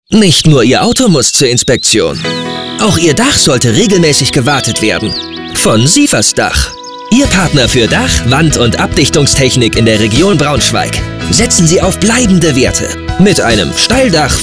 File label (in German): radiospot